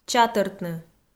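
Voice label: "чатӧртны", Komi, female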